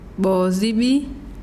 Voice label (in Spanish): "ceniza", mazahua